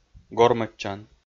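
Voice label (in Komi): "гормӧдчан", Komi, male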